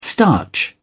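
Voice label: "starch", British English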